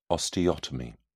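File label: "osteotomy", British English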